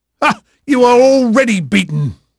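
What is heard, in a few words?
English male, voice; Pirates Of The Caribbean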